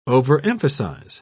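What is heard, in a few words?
"overemphasize", British English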